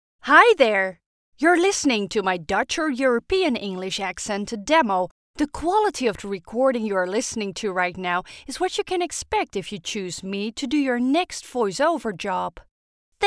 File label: Accented English, Female